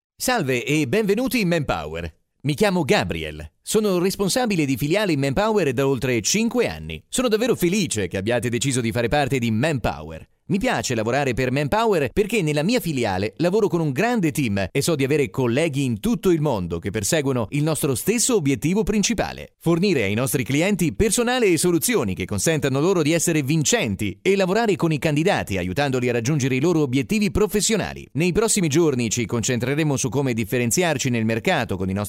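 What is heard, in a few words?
Italian male voice over, upbeat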